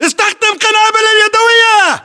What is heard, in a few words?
order action grenade, battle chatter, english